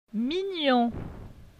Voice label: "mignon", francais; phonetique; la graphie gn

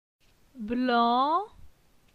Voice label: "Blan", phonetique; la syllabe an

